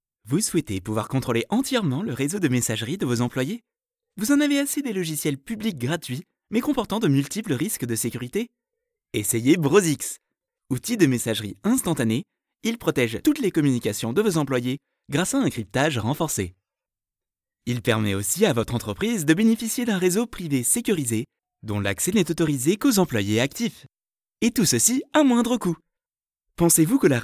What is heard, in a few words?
Voiceover French